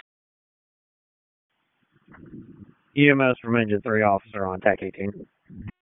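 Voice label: Public Safety Tactical, p25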